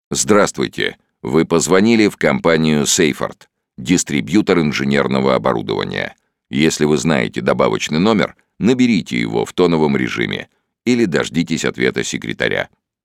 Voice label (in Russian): IVR компании диктор; Sayfort